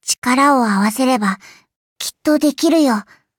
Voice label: upgrade, ja; cookie run

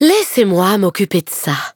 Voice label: battle, fr

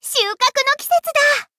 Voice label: levelup ja; Cookie Run

